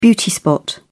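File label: "beauty spot", British English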